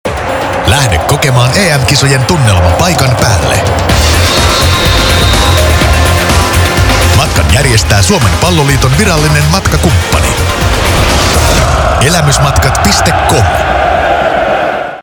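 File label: Finnish M Ad, male; voice over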